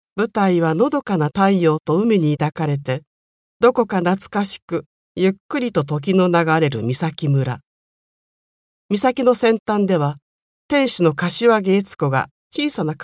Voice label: 朗読

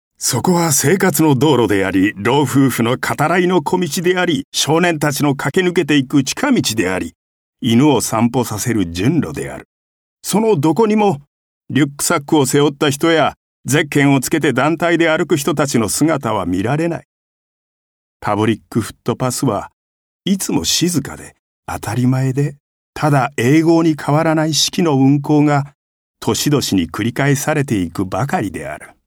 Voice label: 語り

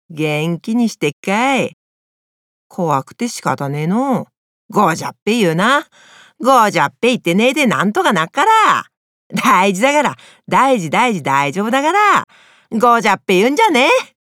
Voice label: 栃木弁; 方言

